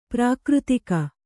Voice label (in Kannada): "ಪ್ರಾಕೃತಿಕ", Kannada